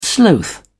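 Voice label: "sloth", British English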